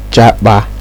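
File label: "japa", Nigerian Pidgin, male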